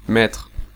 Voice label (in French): Meters, French, male